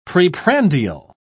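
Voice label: "preprandial", British English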